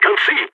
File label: blinded, balkan; vo